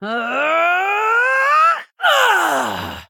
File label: Female Human Death; zh